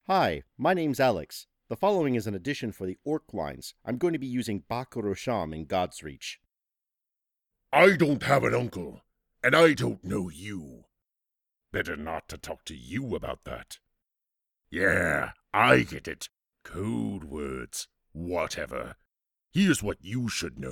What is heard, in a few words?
orc audition